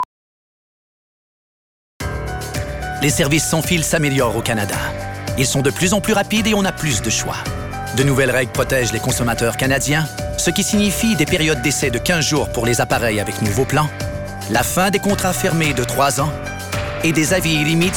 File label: industrie WEB FR